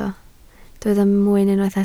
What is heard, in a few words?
"mwyn", Welsh